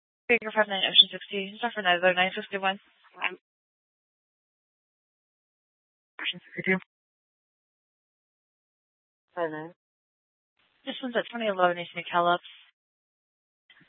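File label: police department dispatch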